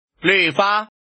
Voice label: man

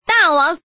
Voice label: Woman

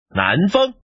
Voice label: man

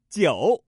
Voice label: single 9, male

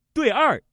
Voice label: pair 2, male

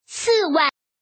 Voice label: woman

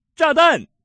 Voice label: bomb, male

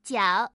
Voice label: single 9, female

